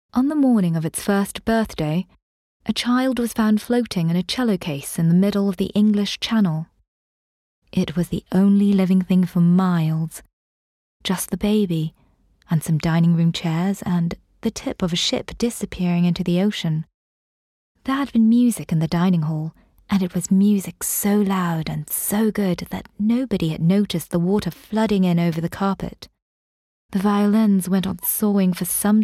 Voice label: audiobook